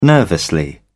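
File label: "nervously", British English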